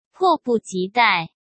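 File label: "迫不及待", Mandarin Chinese; hsk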